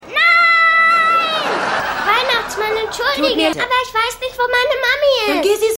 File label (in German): Maedchen Junge; Stimmproben